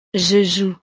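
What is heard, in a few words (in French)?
"je joue", French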